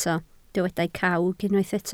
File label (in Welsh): "cawg", Welsh